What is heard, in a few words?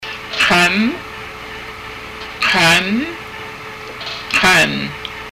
chest, haida